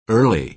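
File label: "early", American English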